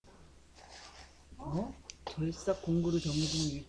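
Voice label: "jig", spoken word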